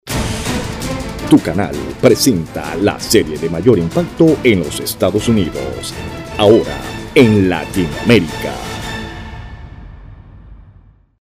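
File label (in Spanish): promo TV medium tone; PROMO TV TONO MEDIO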